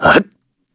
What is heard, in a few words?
Jump, kr; Shakmeh; Kings Raid